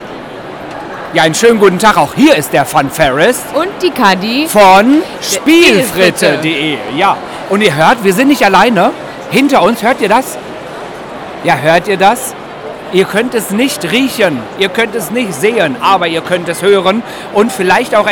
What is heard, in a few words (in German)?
Ansage